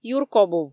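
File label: "юркобув", Komi, female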